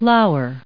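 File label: "lowered", US English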